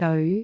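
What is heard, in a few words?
"deoi5", Cantonese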